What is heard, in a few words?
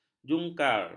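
"jungkar", Malay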